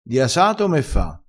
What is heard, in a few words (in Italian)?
"casato", crespinese